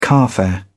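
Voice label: "carfare", British English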